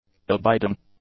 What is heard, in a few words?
"abiding", english; adjectives